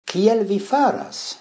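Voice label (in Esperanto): "kiel vi fartas", Esperanto; kiel vi faras; frazoj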